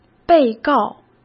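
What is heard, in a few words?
"被告", Mandarin Chinese; hsk; chinese flashcards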